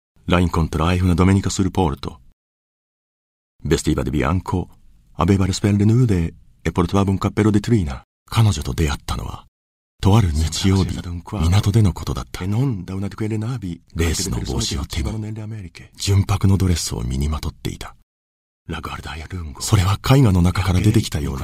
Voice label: narration